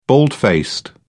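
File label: "bald-faced", British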